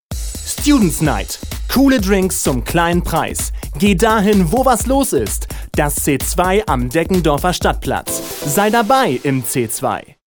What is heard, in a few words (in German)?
Werbung Reminder